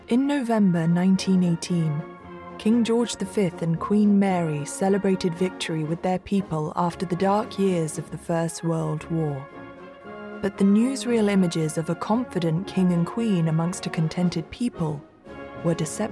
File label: Narration Voice